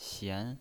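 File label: "xian", pinyin voice, second tone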